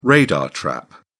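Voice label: "radar trap", British English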